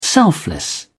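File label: "selfless", British English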